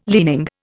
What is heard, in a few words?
"leaning", Indian